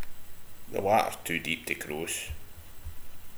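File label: "watter", slang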